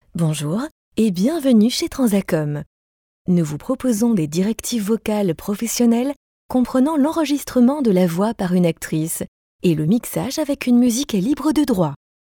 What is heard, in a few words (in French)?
Neutre Naturel, actrices